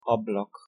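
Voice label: "ablak" pronunciation Hungarian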